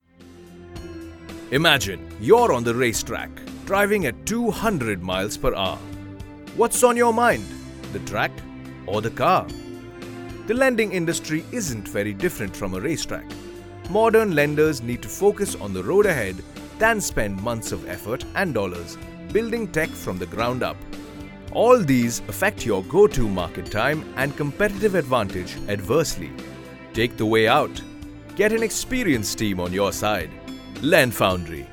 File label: explain, eng; Lend